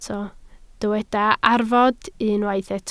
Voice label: "arfod", Welsh